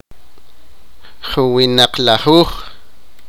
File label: sipakapense; thirty